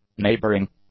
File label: "neighboring", english; adjectives